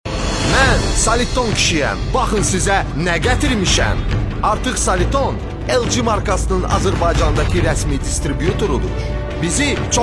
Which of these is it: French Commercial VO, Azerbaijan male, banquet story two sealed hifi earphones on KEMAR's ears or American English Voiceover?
Azerbaijan male